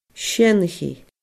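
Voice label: "seanchaidh", Scottish Gaelic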